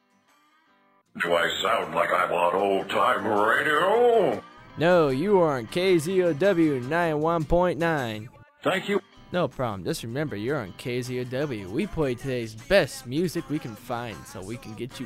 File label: movie liner